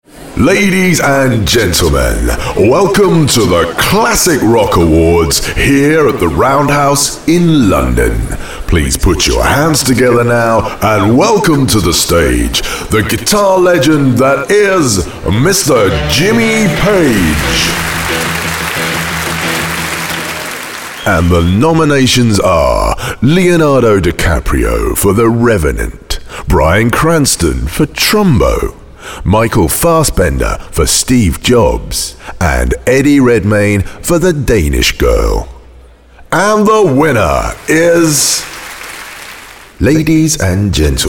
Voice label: Voice Of God Presentation